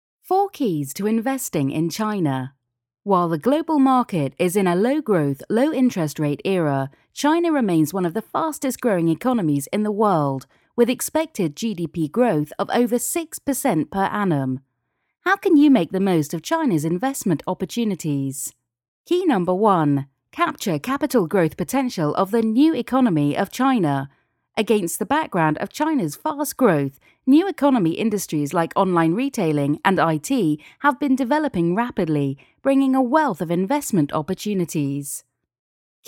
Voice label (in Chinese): 外籍女英 外语 英式英语 轻快